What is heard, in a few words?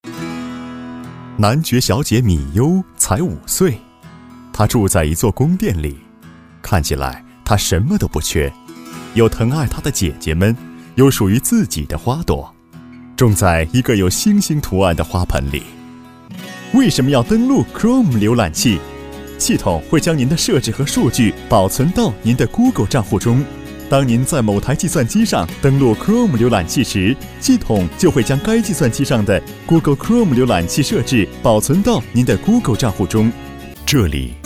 male Chinese smooth, commercial, recited